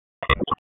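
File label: Raleigh Police North District Tactical, p25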